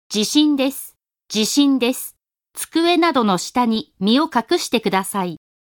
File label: japanese